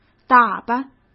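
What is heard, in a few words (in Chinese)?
"打扮", Mandarin Chinese; hsk; chinese-flashcards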